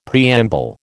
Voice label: "preamble", American

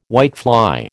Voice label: "whitefly", American

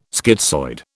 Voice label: "schizoid", British

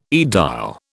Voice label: "aedile", British

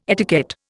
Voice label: "etiquette", Indian

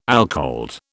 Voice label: "alcalde", British

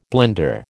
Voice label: "blender", American

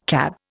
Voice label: "CAB", Indian

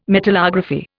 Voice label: "metallography", Indian

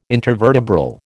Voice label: "intervertebral", American

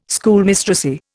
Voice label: "Schoolmistressy", Indian

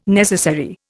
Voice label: "necessary", Indian

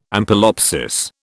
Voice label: "ampelopsis", British

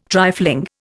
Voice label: "trifling", Indian

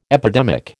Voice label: "epidemic", American

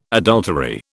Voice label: "adultery", British

